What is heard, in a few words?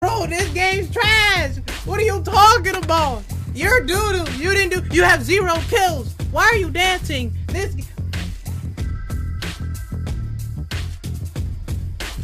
this game is trash funny reaction; tsm daequan